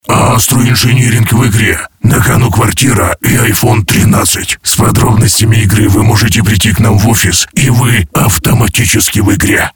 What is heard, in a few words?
russian animation